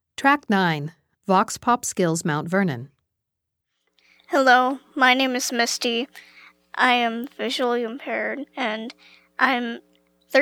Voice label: Vox pop; Mt Vernon; Blind Youth Audio Project 2016